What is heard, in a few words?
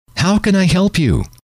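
"how can I help you", male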